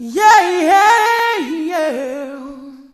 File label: "YEAH I YEAH", Funky Vocals, ADLIB MEN; USB Soundscan vol.18; AKAI